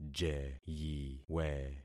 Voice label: "jeyiwe", concat syllables; Affixing experiment